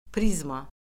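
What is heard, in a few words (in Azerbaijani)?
"prizma", Azerbaijani; Orfoqrafiya Lüğəti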